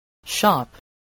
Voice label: "shop", spelling

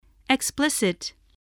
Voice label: "explicit", voice

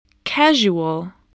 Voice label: "casual", spelling

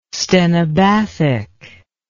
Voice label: "stenobathic", English

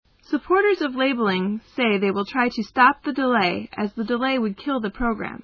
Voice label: M

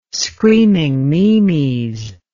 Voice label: "screaming meemies", English

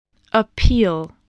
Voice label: "appeal", English

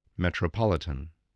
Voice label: "metropolitan", voice, spelling

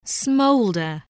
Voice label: "smolder", voice, spelling

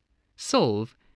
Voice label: "solve", English voice

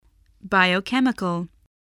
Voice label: "biochemical", spelling

